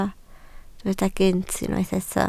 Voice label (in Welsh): "gynt", Welsh